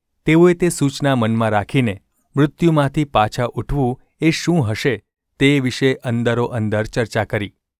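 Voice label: gu ulb mrk, Gujarati; mrk 9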